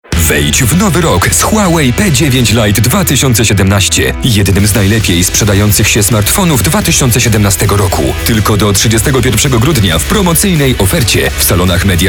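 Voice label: Polish, male, commercial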